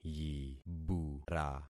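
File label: "yibura", concatenated syllables; Affixing experiment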